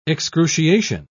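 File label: "excruciation", British English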